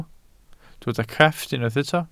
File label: "crefft", welsh